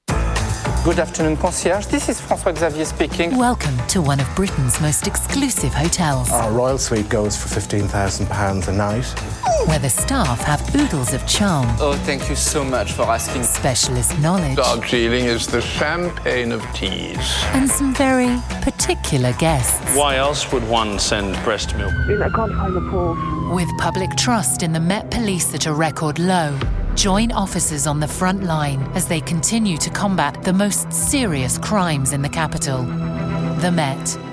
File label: english british promos